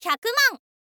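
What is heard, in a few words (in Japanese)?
"hyakuman", Japanese, girl; voice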